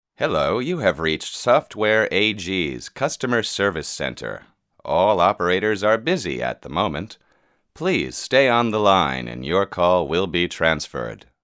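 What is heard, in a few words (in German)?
amerikanisch soft, männlich, Telefonansagen; Sprecher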